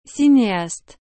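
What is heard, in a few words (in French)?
"cineaste", French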